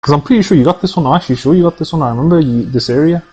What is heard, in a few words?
voice playback troll